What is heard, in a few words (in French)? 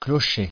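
"clocher" French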